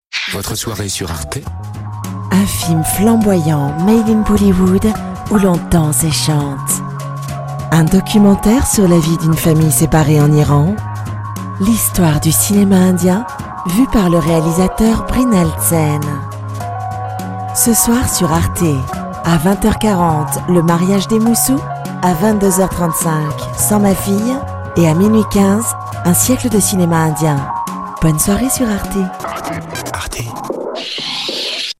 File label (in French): doux intimiste; Arte